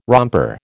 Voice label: "romper", American